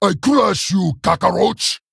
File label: Male Troll Attack